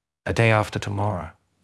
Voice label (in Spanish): Voice Acting es M